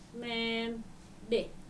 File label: "menhde", Dinka